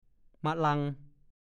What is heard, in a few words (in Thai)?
"มะลัง", ภาษาโคราช; ดูคำว่า มะลัว